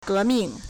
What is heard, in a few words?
"ge2 ming4", Chinese